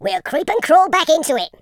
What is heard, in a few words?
just you wait, Footy Fan